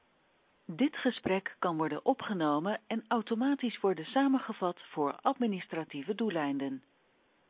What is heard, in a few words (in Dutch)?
melding callrecording admindoeleinden; Gespreksopname voor administratieve doeleinden